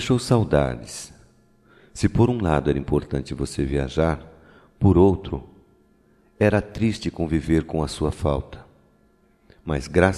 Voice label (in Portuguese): BOAS VINDAS (PARA QUEM REGRESSA DE VIAGEM) M